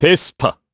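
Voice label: Vox korean; voices; heroes; Clause ice; Vespa; kingsraid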